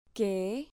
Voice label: "que", Spanish syllable

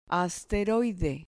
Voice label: "asteroide", Spanish

